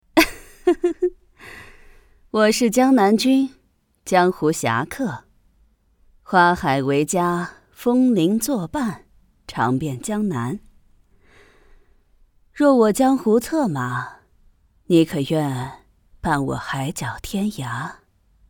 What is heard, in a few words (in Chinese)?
古风角色中性侠女感觉